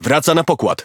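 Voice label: Speech Pilots Bomber Land, Polish; World Of Warships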